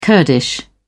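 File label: "kurdish", British English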